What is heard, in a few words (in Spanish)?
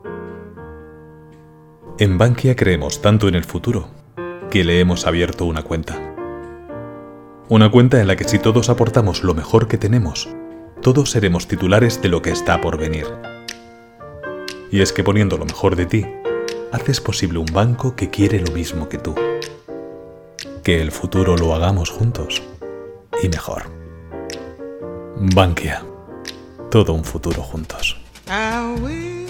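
calido, Locución publicitaria; locutor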